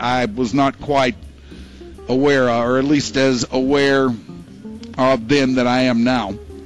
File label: stammer